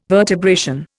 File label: "vertebration", Indian